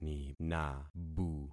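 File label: "ninabu", concatenated syllables; Affixing experiment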